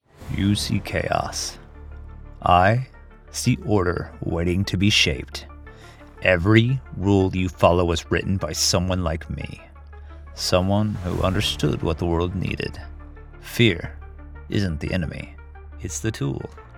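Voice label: Villain, Professional Male Voice Actor, American male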